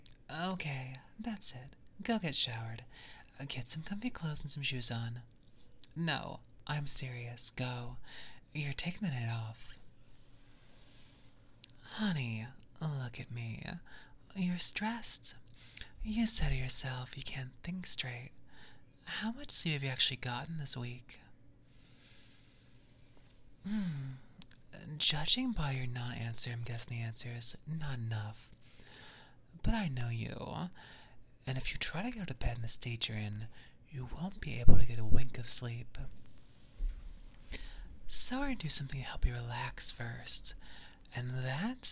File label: asmr script test no sfx